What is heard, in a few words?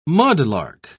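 "mudlark", British English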